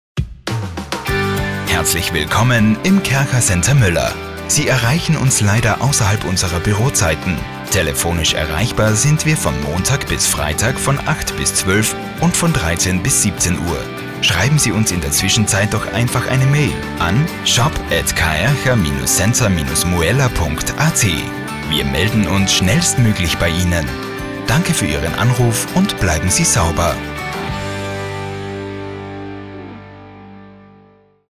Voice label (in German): Nachtansage; KaercherCenterMueller; telefonansagen sonstige